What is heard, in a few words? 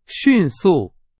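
Chinese, vocabulary; hsk4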